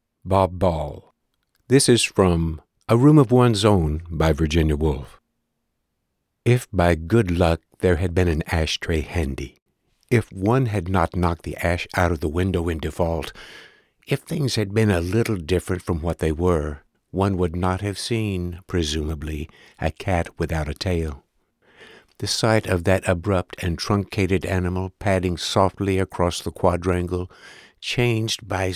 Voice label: Classic Fiction, audiobook narration